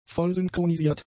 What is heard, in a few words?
"vollsynchronisiert", German; adjectives